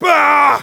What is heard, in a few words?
negative vocalization, de; Demoman